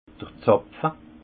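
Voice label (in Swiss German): "zapfe", Haut Rhin; vin; bouchon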